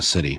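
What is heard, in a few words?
"city", American English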